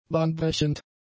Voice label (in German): "bahnbrechend", German; adjectives